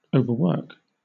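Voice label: "overwork", British English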